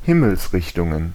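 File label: "Himmelsrichtungen", German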